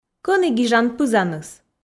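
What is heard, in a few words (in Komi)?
кӧні гижан пызаныс, Komi, female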